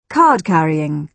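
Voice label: "card-carrying", British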